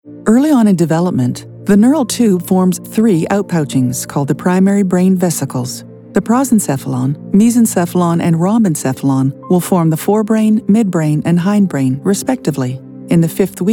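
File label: Medical Voiceover, female voice; The Brain spot; E-LEARNING; informative; systematic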